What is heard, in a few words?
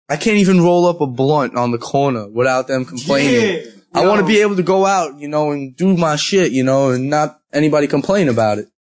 Cant Roll Blunt, Male; Talking Sound Effects; Sound Effects:Voice; VoiceBox